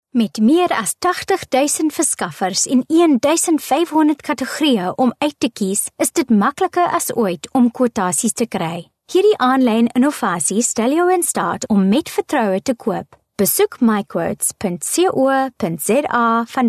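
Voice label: Afrikaans female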